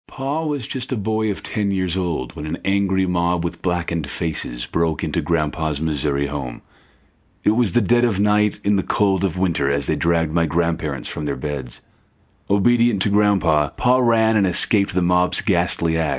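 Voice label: narration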